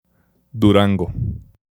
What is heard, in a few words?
"Durango" Pronunciation